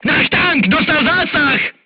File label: voice message player tank hit, crew tanks czech